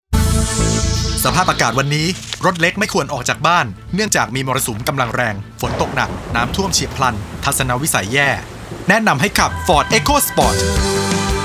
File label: voice commercial; ford